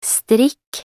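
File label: "strikk", Norwegian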